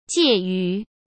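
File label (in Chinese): "介于", Mandarin Chinese; hsk; chinese-flashcards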